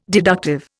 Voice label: "deductive", Indian